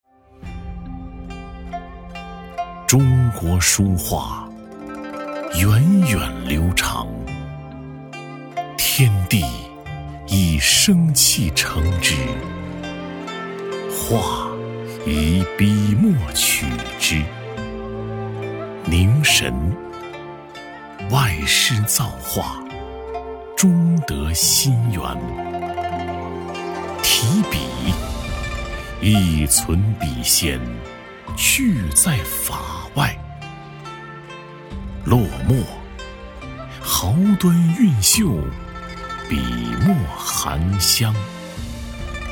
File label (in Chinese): 男 朗诵; 中国书画